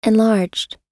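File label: "enlarged", American English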